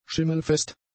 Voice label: "schimmelfest", German; adjectives